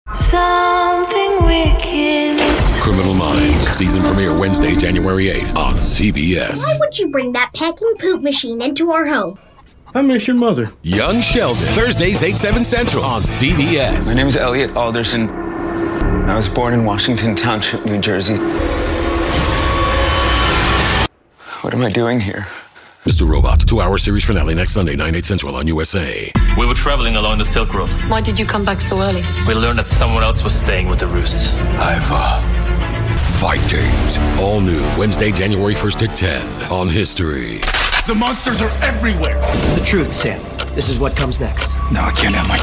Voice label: Promo